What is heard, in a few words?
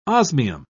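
"osmium", British English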